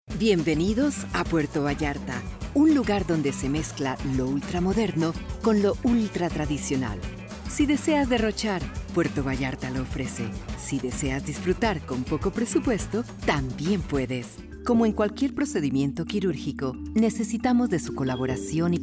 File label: Narration